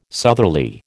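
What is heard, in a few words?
"southerly", American English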